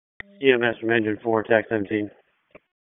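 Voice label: Public Safety Tactical, p25; wake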